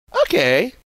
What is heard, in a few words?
funny